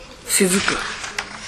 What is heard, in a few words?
"sezuku", minamiyamagata